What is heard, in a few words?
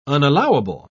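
"unallowable", British English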